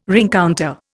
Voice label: "rencounter", Indian